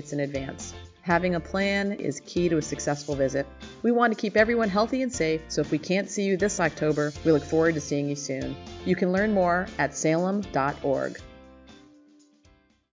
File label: halloween psa; salem